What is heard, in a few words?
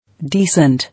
"Decent", English